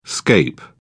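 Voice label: "scape", British English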